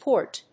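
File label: "Porte", English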